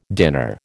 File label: "dinner", American